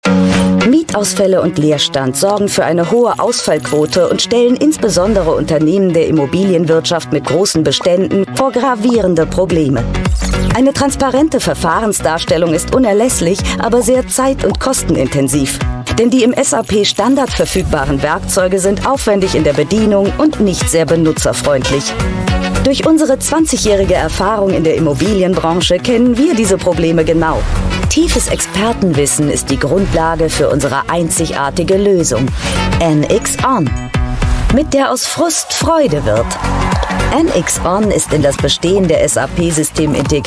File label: german voice over female narrator